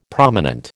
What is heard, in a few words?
"prominent", American